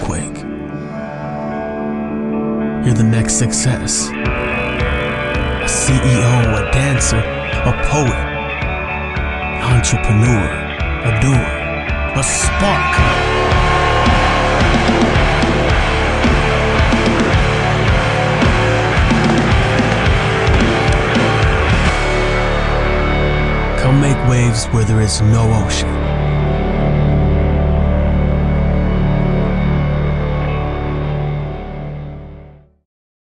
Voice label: Motivational Inspirational University with Poetic and Raw Motivation; Viral VO